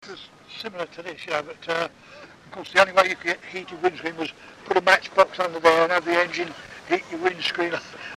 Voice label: male